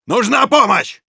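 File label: Soldier help me, Russian; «Нужна помощь!»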